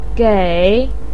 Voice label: "gei3", Chinese pinyin voice